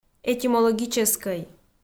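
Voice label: "этимологическӧй", Komi, female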